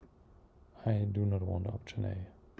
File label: neutral